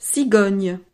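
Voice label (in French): "cigogne", French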